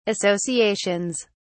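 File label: "associations", British English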